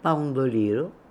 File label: "Poundoliro", pronounciations; Fourth Way; Beelzebub